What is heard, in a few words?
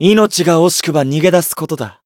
encount, jp